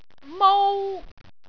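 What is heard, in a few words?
"mou1", Cantonese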